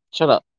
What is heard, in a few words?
voice message